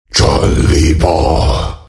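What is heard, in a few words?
attack, chinese; dota2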